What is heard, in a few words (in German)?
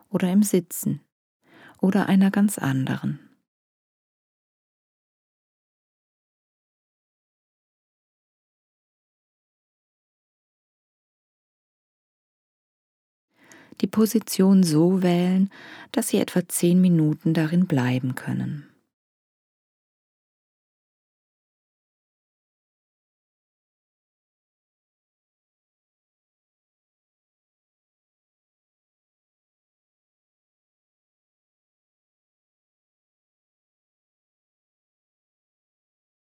Bodyscan Anleitung